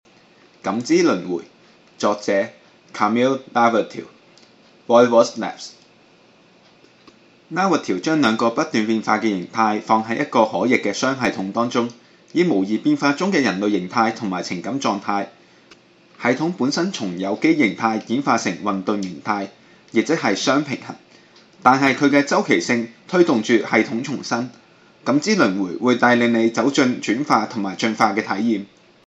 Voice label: Cantonese; PerceptionofConsequence